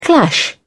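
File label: "clash", British English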